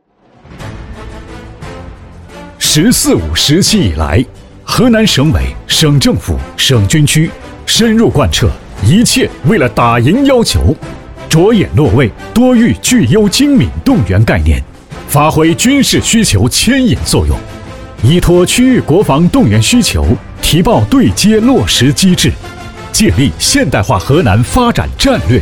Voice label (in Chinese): 部队汇报铿锵有力